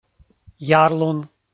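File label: "ярлун", Komi, male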